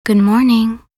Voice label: voc en greet goodmorning